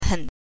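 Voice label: "hen", Mandarin Chinese pinyin, neutral tone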